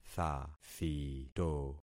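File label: "thathito", concatenated syllables; Affixing experiment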